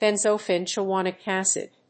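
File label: "benzenesulfonic acid", English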